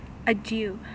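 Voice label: "adieu", Canadian, female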